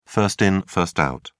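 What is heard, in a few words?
"first in first out", British English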